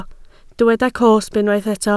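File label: "cosb" Welsh, spoken word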